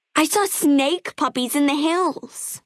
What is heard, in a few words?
Female child dialogue greeting; Fallout NV